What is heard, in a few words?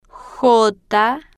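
"j", spanish, female, slow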